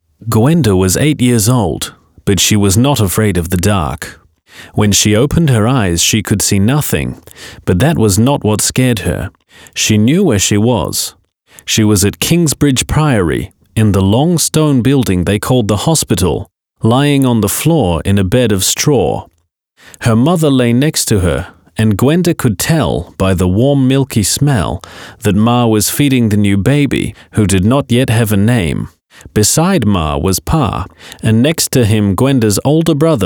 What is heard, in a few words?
audiobook narracja